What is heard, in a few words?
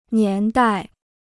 "年代", Chinese